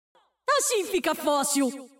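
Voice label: Portuguese; 探奇（守望先锋2）; 终极技能; 葡萄牙语; Aaah, assim fica fóssil!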